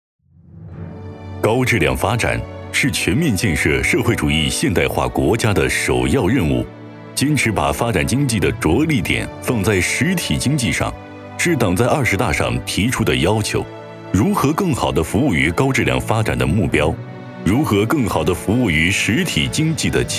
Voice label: 专题 厚重 大气

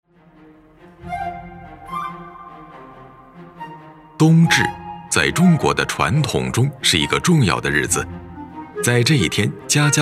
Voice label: 纪录片 讲述; 舌尖; 信阳菜传统美食

